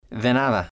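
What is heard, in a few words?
"de Nada", Spanish, male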